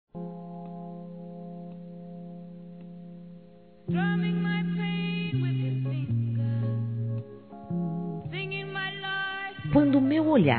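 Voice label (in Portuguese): Possibilidade de Felicidade Feminino; Telemensagens; Conquistas Cantadas paqueras; Telemensagens 2017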